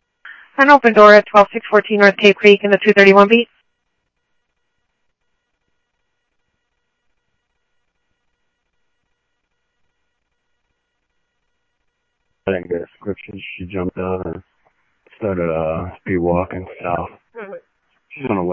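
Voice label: Phoenix police department, scanner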